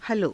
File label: "halo", Hindi